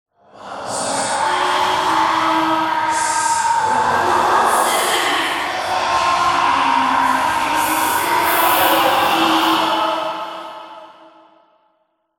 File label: Whispers Tense; horror and atmosphere fx; Silent Hill Downpour